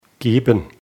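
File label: "geben", German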